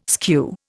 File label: "skew", Indian